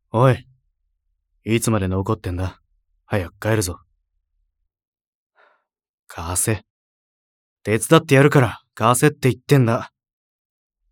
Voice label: 俺様クール

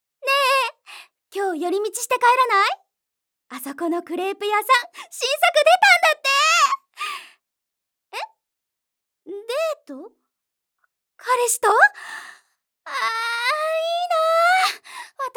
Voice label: 陽気な友人

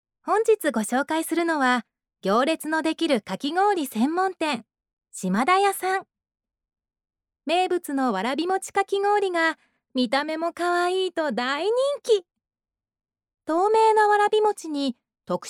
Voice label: ナレーション

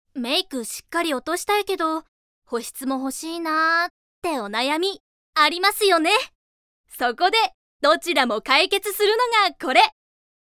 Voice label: CMナレーション

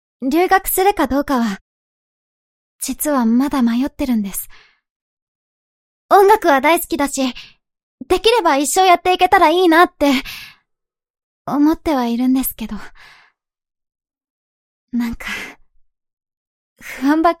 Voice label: 女子高生（悩み）

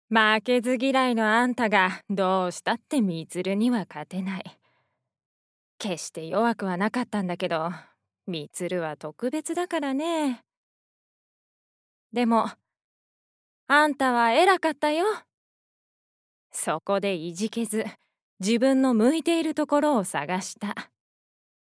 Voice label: サバサバ系女性

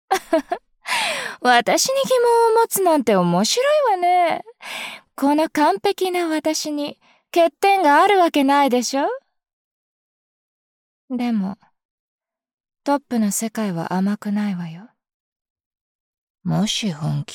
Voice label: トップモデル 高飛車

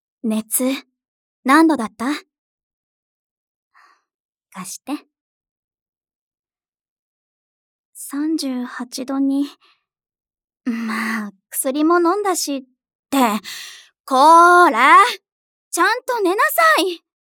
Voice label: 優しい先輩

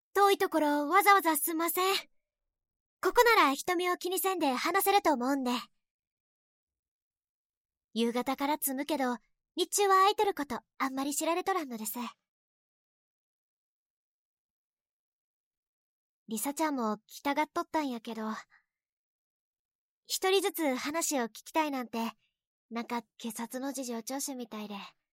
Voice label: 方言; 女性

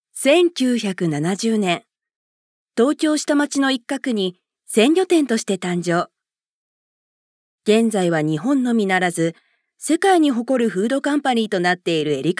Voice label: ナレーション; 女性

